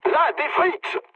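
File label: French enemy detected mood low; War Thunder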